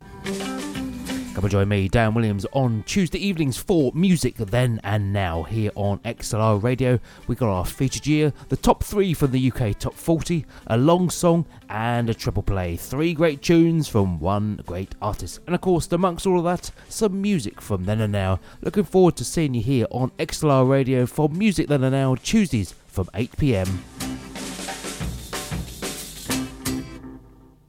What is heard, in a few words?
Promo; Music Then & Now – XLR Radio